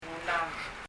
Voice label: "skuulam", パラオ語; 「私たちの学校」